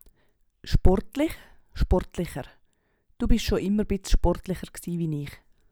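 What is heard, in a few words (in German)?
sportlich, Swiss German; Hauptwortschatz; Wortschatz Kapitel 8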